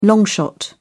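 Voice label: "long shot", British English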